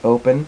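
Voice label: "open", male, voice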